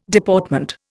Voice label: "Deportment", Indian